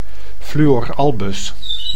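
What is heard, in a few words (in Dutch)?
"fluor albus", Dutch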